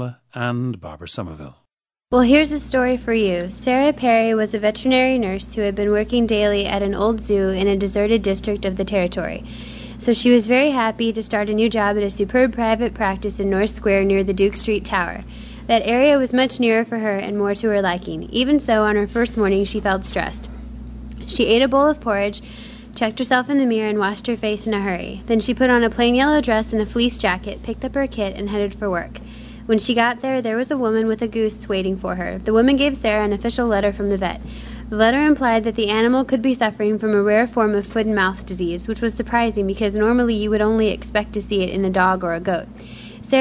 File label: missouri, dialects